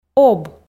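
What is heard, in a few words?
"об", Komi, female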